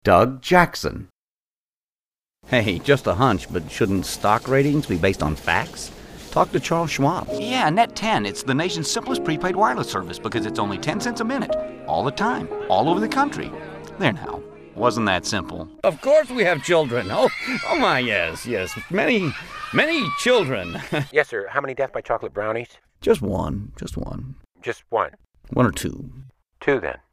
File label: Commercial